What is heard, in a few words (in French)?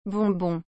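"bonbon", French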